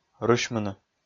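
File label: "рысьмыны", Komi, male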